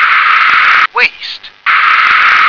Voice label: "waste", English